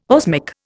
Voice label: "osmic", Indian

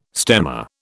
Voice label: "stemma", British

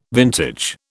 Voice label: "vintage", British

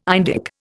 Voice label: "Indic", Indian

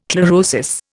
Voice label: "chlorosis", Indian

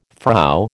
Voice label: "Frau", American

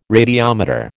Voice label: "radiometer", American

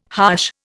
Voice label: "harsh", Indian

